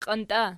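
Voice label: "qiantia" nominative, Archi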